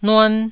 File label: "nuan1", Taiwanese